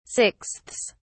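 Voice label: "sixths", British English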